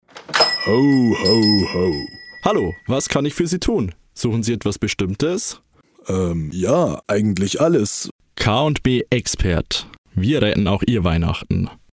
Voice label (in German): Werbung Reminder; K+B Expert